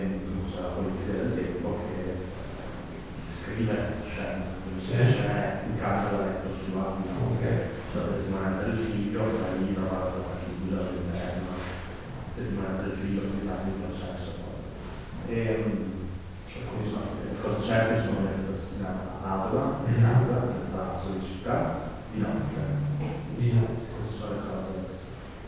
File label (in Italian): voce anomala sviluppata nella sala pietra Bianca